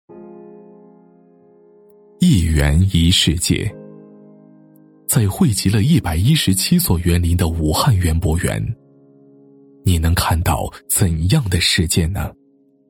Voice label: 男, 纪录片, 园博园 讲述感; 大气浑厚; 高端厚重

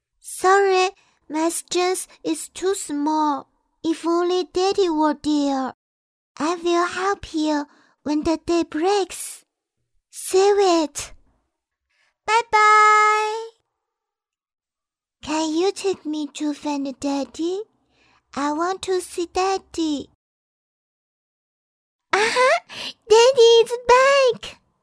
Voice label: 动画英文 6-7岁小女孩

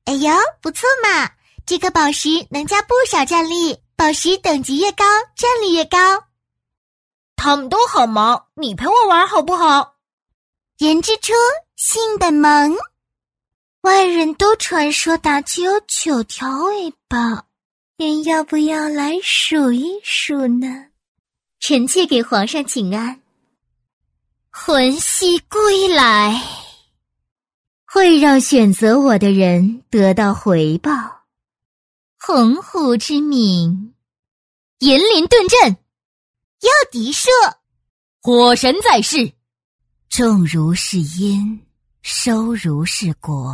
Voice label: 女 游戏 合集声展 萝莉 正太 少女 御姐 女王 老年音

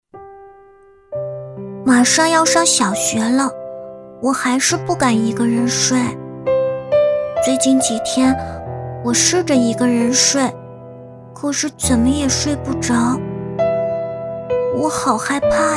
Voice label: 童声 内心独白 6岁女童

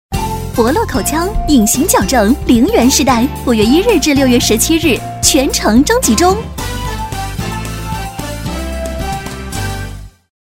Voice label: 女, 广告配音, 口腔, 活泼开心; 柏乐口腔

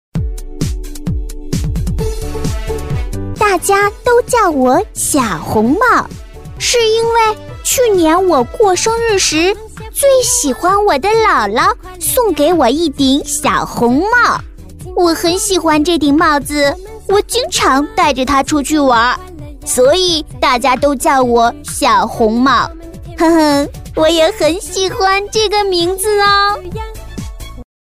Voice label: 女 童声 女童 10岁左右

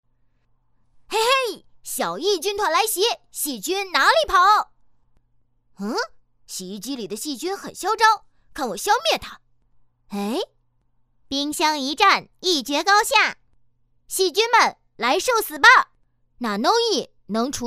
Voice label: 男童声; 角色; 小E军团

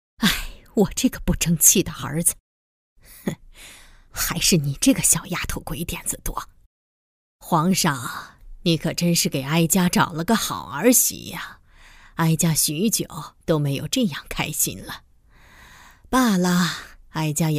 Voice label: 女 角色模仿 威严老年音; 太后

